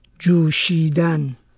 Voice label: "dZuSidan", Persian

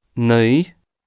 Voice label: "naoi", Scots Gaelic

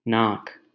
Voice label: "nak" Hindi

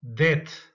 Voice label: "det", Albanian

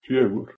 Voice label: "fjögur", Icelandic

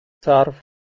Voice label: "tsarv", Ossetian

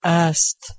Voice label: "ast", Persian

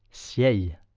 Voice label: "sieis", Occitan

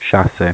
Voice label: "sase", Romanian

